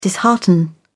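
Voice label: "dishearten", British English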